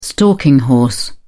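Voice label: "stalking horse", British English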